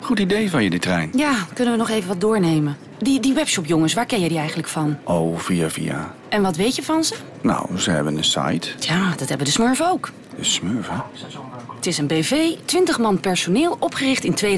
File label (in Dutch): dialoog; KvK